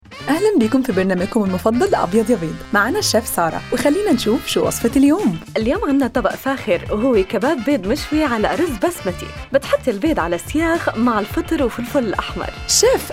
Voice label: Arabic Spot; EggFarmer; Eggs AR; EFC